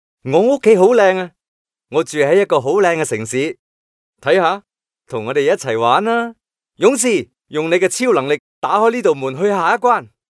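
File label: Cantonese male children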